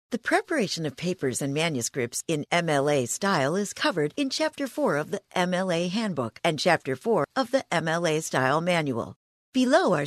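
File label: US English, female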